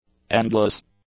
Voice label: "endless", English; adjectives